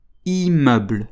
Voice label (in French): "immeuble", French